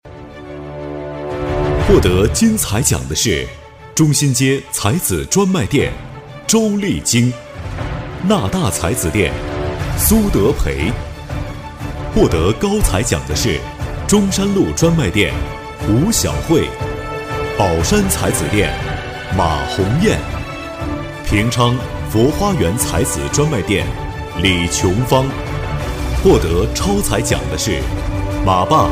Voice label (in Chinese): 专题片 颁奖